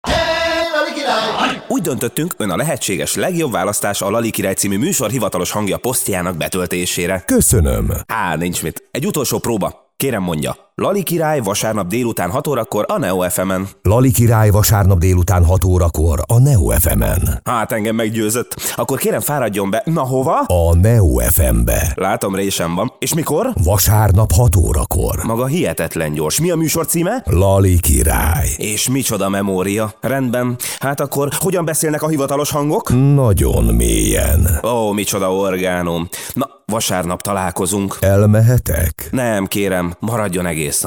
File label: station voice; Élő adás a palotából